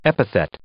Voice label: "epithet", English